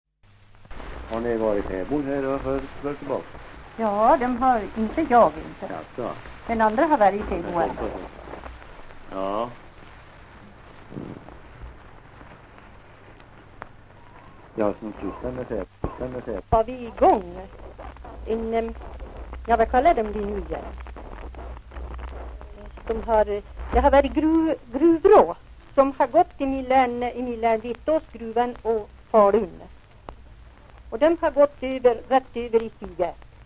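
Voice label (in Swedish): Järbo Gästrikland, dialekter; Folkminnen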